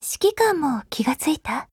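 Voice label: Japanese; Groza; Dreamscape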